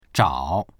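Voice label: "zhao3", Mandarin Chinese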